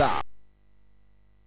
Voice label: Bouncy Balls speech, MOS 6581